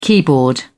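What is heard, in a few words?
"keyboard", British English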